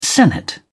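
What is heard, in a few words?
"senate", English, British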